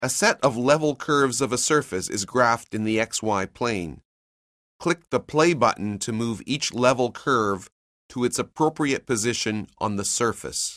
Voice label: narration; CALCULUS 7E; cengage